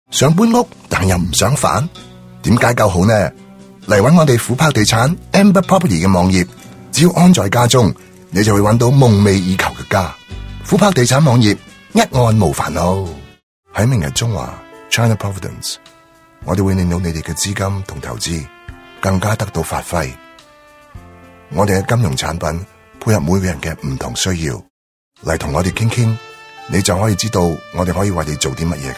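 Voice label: Cantonese, TV commercial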